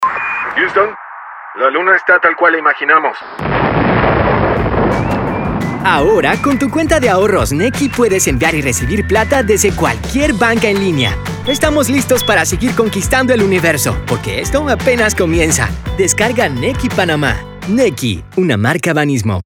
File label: Spanish Latin American promos